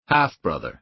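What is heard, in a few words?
"half brother", American English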